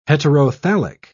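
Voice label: "heterothallic", English, British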